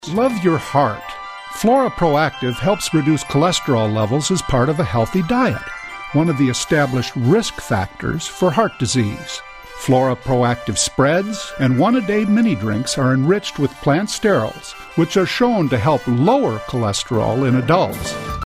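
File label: American English, male, voice over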